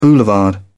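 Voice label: "boulevard", British English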